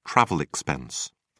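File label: "travel expense", British English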